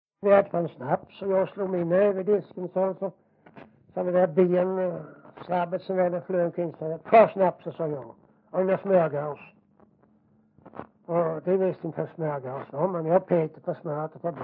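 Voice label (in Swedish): Jämshög Blekinge, dialekter; Folkminnen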